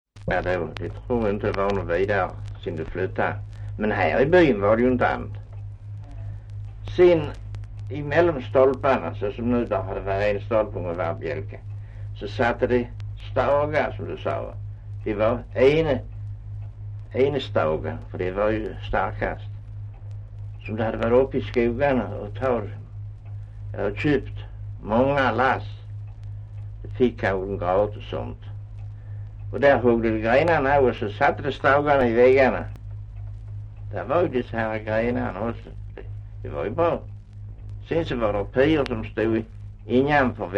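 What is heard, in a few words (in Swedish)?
Östra Ingelstad Skåne, dialekter; Folkminnen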